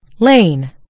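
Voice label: "lane", US English; gcompris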